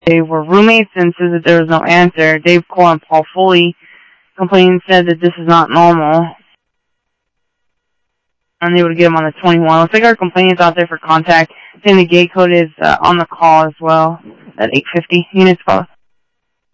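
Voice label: police department, scanner audio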